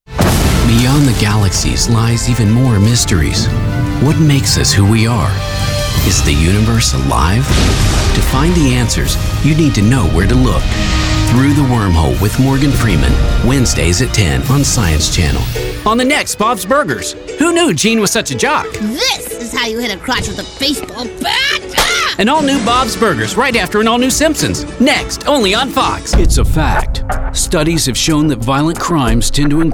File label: Promos